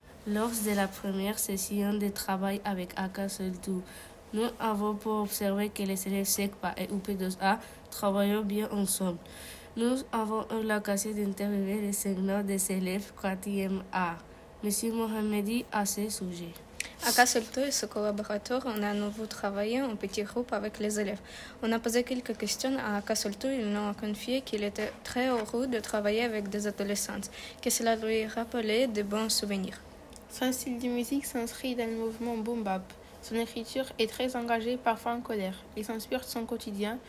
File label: PODCAST SLAM